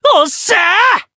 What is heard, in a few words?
growth, jp